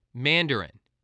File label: "mandarin", voice